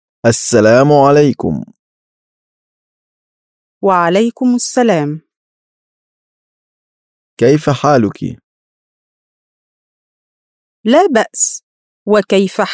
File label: Dialog, Arabic